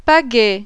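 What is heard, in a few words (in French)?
"PAGAIE", French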